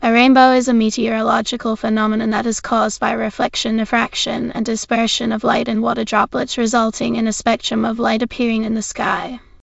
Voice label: speaker, en GB; piper voices; vctk